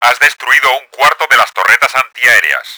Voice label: flak, Spanish; Battle Engine Aquila; XBOX

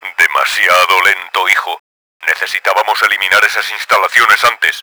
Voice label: failed radar, Spanish; Battle Engine Aquila; XBOX

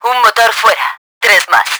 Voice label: engine down, Spanish; Battle Engine Aquila; XBOX

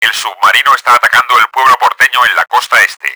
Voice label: attack, Spanish; Battle Engine Aquila; XBOX

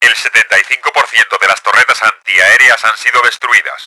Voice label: flak, Spanish; Battle Engine Aquila; XBOX

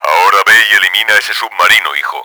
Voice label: destroy sub, Spanish; Battle Engine Aquila; XBOX

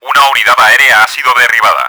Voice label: shot down, Spanish; Battle Engine Aquila; XBOX

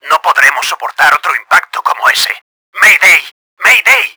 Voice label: hit, Spanish; Battle Engine Aquila; XBOX

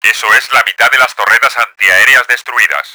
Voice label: flak, Spanish; Battle Engine Aquila; XBOX

